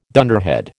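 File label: "dunderhead", American English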